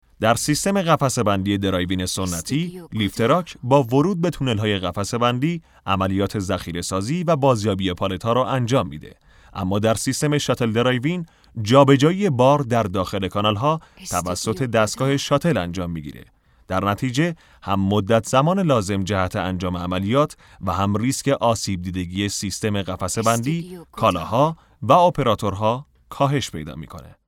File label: narration